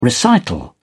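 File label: "recital", British English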